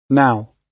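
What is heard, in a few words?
"now", British English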